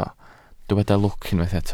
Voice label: "lwc", Welsh

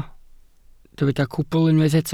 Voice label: "cwbl", welsh

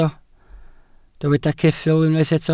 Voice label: "ceffyl", Welsh

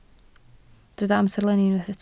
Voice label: "amserlenni", Welsh

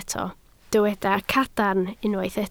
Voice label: "cadarn", Welsh

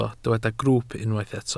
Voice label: "grwwp", Welsh

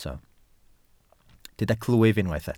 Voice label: "clwyf", Welsh

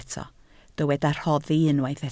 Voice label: "rhoddi", Welsh

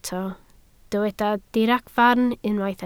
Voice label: "diragfarn", Welsh

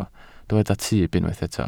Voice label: "tyb", Welsh

